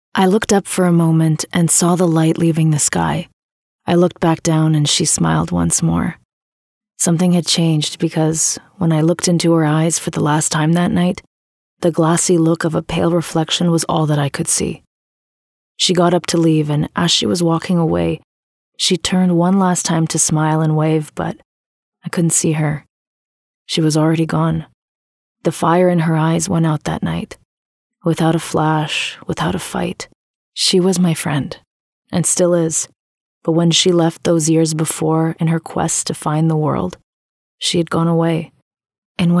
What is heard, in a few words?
audiobook English